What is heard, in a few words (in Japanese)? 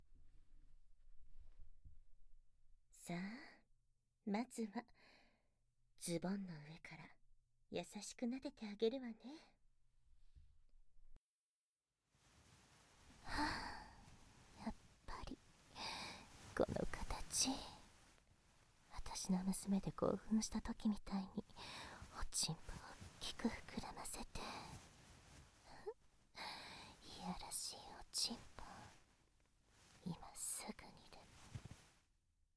開店までのカウントダウン, ASMR